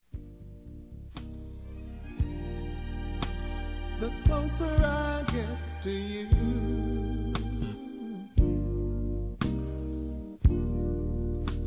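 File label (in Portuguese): Namorado Masculino; Aniversários; ANIVERSARIO romantico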